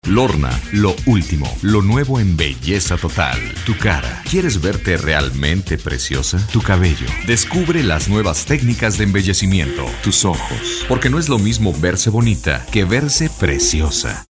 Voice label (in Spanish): Espanol Mexico Masculina Adulta